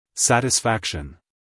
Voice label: "satisfaction" us male; everyone-can-use-english